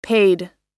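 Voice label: "paid", American English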